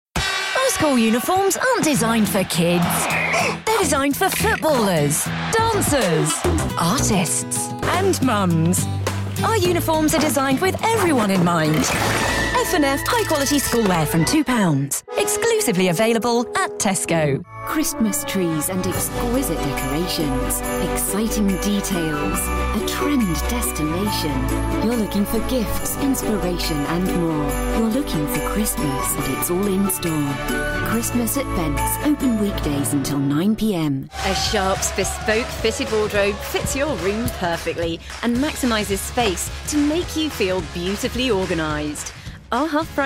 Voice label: Commercial